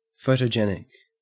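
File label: "photogenic", Australian English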